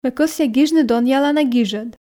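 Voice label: Komi sentences, female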